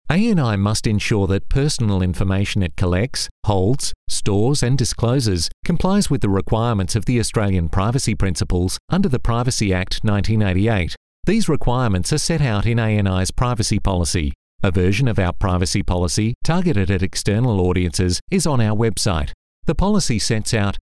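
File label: Australian English, male, eLearning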